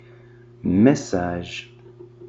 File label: message fr